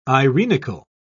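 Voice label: "irenical", English, British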